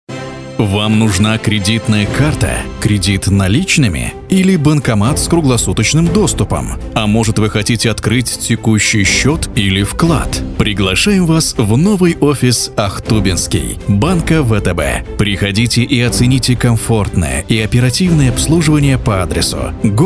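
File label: advertising campaign, radio; banka VTB; Russkom radio; 100.1 FM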